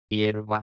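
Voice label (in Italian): "hierba", Fonetica spagnola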